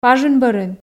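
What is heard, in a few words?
"пажын бӧрын", Komi, female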